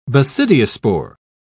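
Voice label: "basidiospore", British English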